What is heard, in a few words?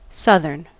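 "southern", US English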